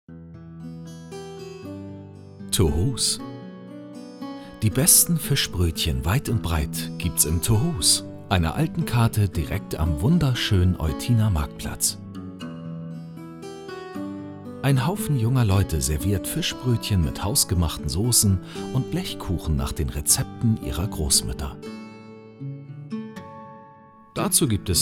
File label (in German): Spiel Erzaehler; Tohus